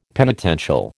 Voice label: "penitential", American

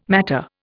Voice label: "matter", Indian

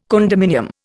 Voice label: "condominium", Indian

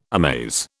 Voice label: "amaze", British

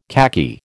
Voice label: "khaki", American

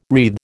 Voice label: "wreathe", American

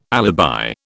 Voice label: "alibi", British

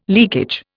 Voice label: "leakage", Indian

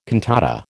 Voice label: "cantata", American

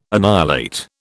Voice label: "annihilate", British

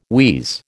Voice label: "wheeze", American

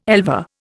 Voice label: "elver", Indian

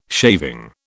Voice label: "shaving", British

